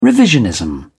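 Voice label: "revisionism", British English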